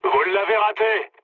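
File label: bomb failure, mood low, French; War Thunder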